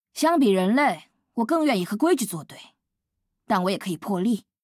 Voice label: vo, zh-cn; rell